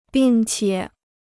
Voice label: "并且", Chinese; bìng qiě; and; besides

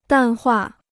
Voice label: "淡化", Chinese

